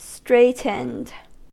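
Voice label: "straightened", US English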